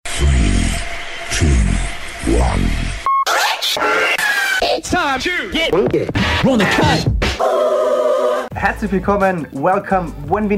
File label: Podcast Intro; Travel Echo